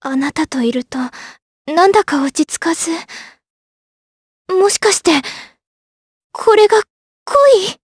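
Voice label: voice dia, voices heroes, Japanese; Talisha; kingsraid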